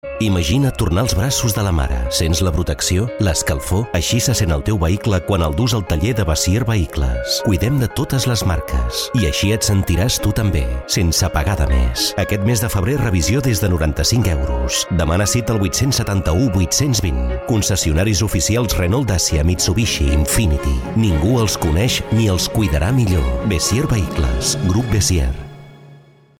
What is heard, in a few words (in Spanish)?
Catalan Espana Masculina Adulta